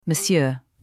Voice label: men in french